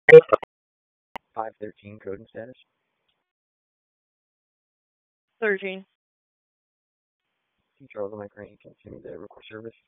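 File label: Raleigh Police Southeast District Dispatch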